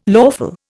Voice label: "lawful", Indian